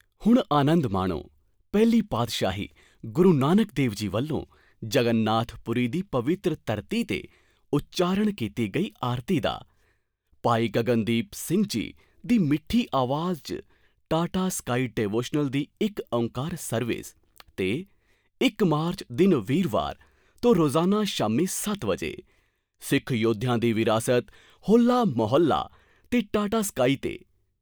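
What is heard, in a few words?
PUNJABI male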